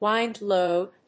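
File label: "Wind Load", English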